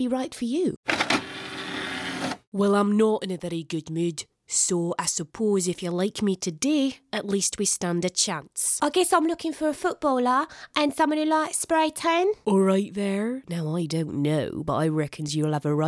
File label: Character